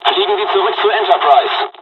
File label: evac, dialogs german; midway us; War Thunder